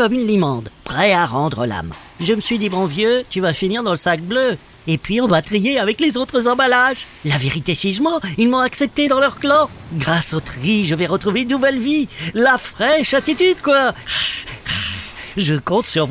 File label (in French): spot tube de dentifrice